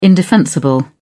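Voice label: "indefensible", British English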